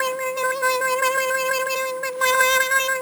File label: jabber; chroma solstice